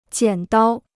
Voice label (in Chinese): "剪刀", Chinese